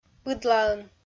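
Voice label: "быдлаын", Komi, female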